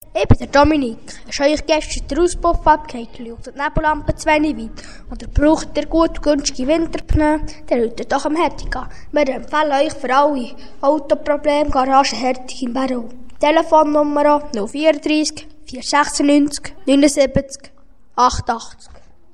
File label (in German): Werbung; Garage Hertig; emmenmatt 4